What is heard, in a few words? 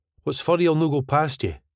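Whits fur yell no go past ye, Scottish English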